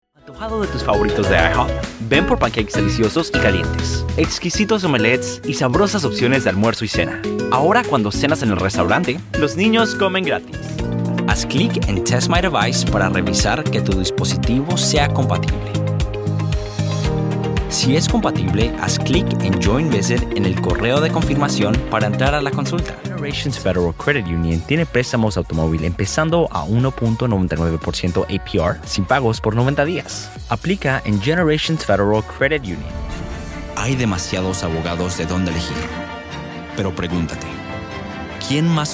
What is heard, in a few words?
spanish latin american commercial; United States